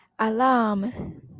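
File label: "alarm", JPN, female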